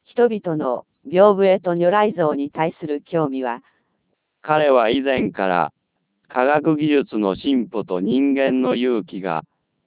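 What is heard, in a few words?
speech CODEC2 3200 bps, Japanese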